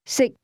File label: "eat", Cantonese